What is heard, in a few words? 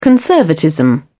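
"conservatism", British English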